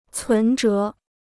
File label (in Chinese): "存折", Chinese